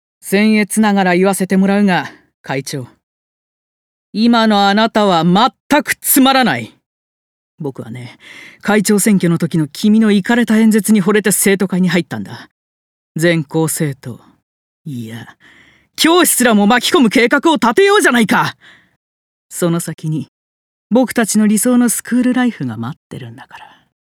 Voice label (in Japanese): 野心家男子中学生